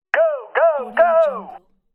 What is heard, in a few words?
military radio voice go go go; applehillstudios